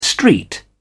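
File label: "street", English, British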